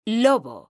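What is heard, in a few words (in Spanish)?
"LOBO", female; mekanta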